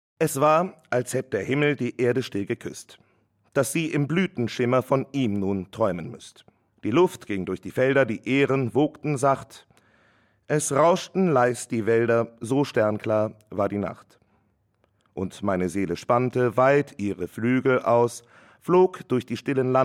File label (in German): sachlich